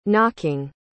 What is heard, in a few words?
"knocking", pronounce